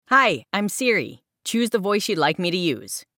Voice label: Siri American Voice